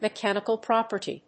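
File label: "mechanical property", English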